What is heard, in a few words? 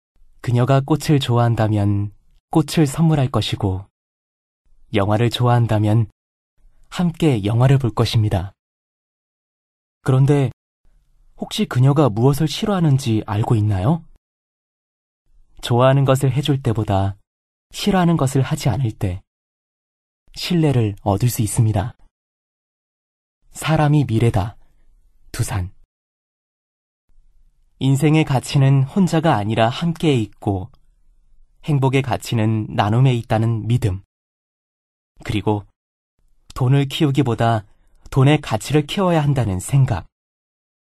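Korean male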